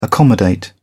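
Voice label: "accommodate", British English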